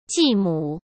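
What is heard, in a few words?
"继母", Mandarin Chinese; hsk; chinese-flashcards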